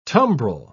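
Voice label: "tumbrel", British English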